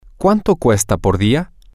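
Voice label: how much does it cost per day, Spanish, male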